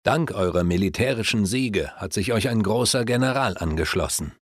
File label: Great General Come Forth, Speech German Advisors; Civ5